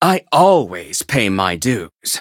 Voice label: battle, en; Cookie Run